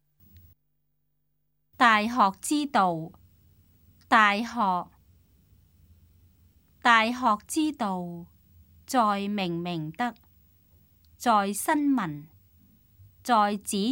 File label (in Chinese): cantonese; 大學之道; 粵語